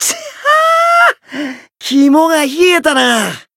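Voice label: win barely, Japanese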